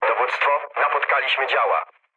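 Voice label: damaged aa, mood low, Polish speech; War Thunder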